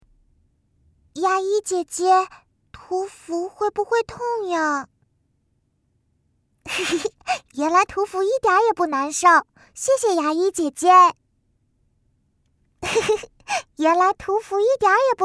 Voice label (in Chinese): 女童